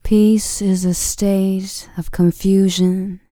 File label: FEM. SPOKEN; R&B Dance